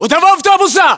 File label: landmark near bus, ru